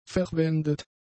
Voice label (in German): "verwendet", German; adjectives